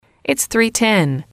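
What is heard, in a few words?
its three ten, English, female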